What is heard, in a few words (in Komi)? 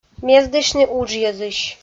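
"мездысьны уджйӧзысь", Komi-Zyrian, female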